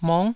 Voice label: "mong9", taiwanese syllables